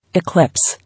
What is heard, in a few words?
"Eclipse", English